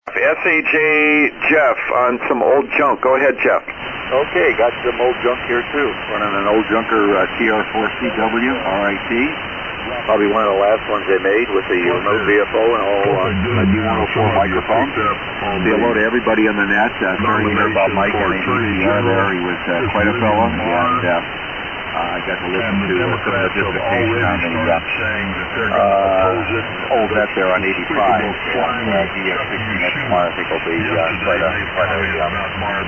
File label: Drake TR-4CW RIT & D-104 Mic; Willoughby, OH.